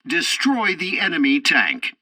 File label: tutorial tank arcade capture zone kill target, english; War Thunder